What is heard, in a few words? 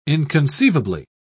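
"inconceivably", British English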